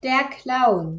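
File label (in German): "Clown", German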